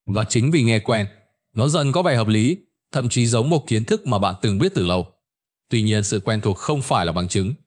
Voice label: narration